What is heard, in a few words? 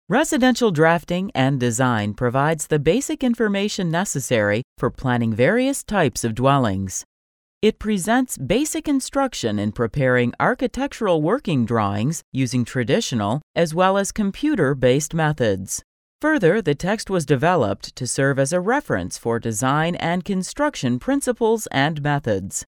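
female audio text book; english; audo text book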